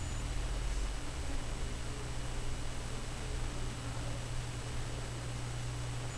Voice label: documentary